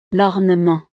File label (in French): "l ornement", frances; navidad